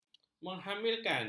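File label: "menghamilkan", Malay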